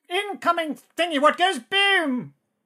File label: battle chatter; SyrinScape; Syrinscape unplugged; Jungleplanet dinolaser battle